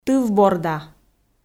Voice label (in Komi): "тыв борда", Komi